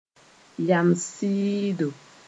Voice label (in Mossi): "yams-sɩɩdo", moore